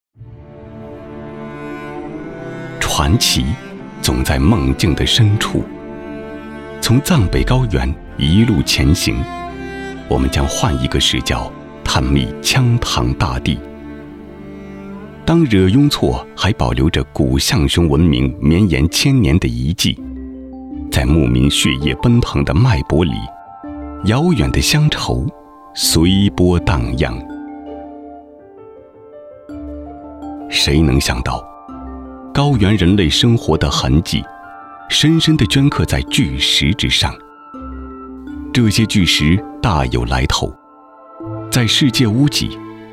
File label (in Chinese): 纪录片那曲